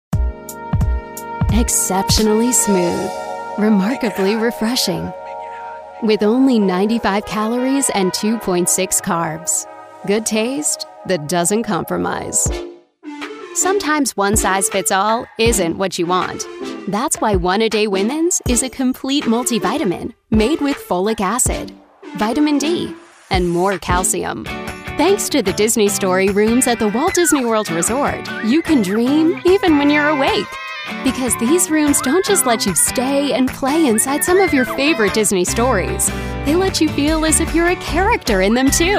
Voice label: Commercial